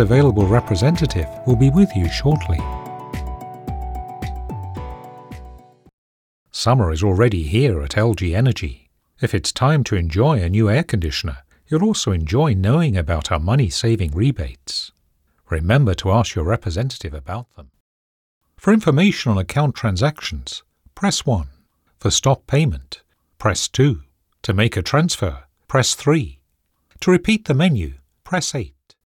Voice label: On Hold, voiceovers